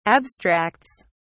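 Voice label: "abstracts", English, British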